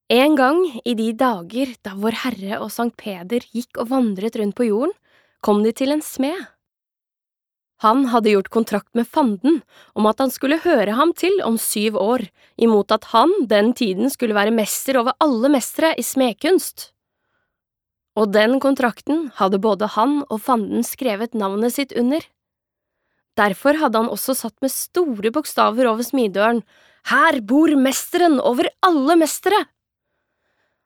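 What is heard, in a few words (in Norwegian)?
forteller, Norwegian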